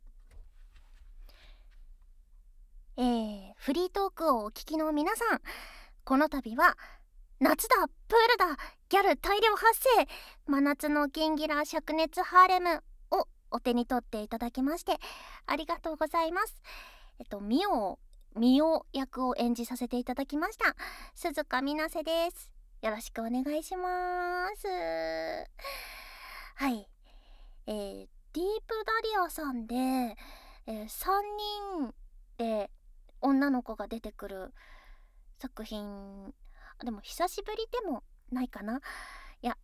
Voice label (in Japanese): フリートーク, ASMR; SEなし